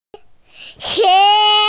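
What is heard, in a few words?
"ti1", Mandarin Chinese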